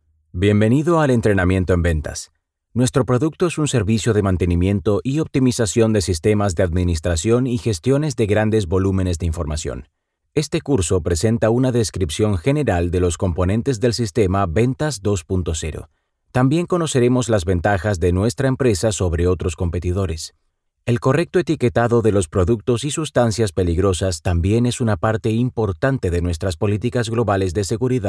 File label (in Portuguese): Espanhol; locutores nativos